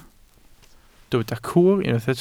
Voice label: "cwr", Welsh